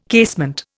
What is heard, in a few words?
"casement", Indian